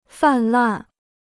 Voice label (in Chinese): "泛滥", Chinese